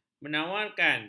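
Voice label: "menawarkan", Malay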